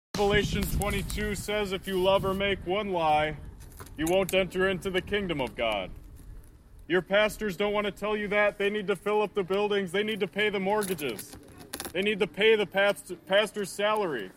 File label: preaching; akouo